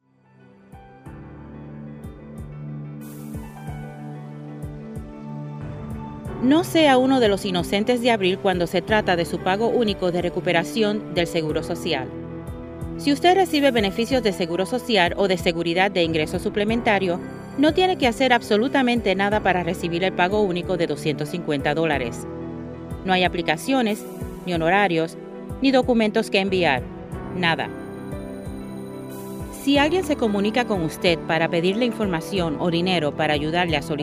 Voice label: spanish; dont be fooled